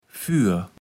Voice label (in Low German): "Für", Platt